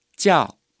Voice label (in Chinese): "叫", Chinese